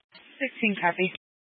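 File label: Fire Dispatch, Scanner audio recording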